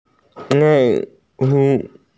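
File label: "white cloud", Mandarin; bai2yun2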